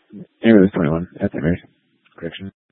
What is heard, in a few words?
Fire Department Dispatch, scanner; Grand Junction